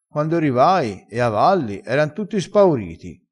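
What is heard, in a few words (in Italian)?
"spaurito", vocabolario dialettale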